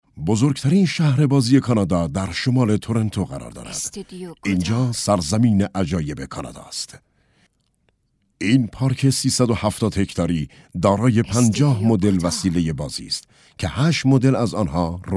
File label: documentary